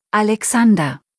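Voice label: "Alexander", German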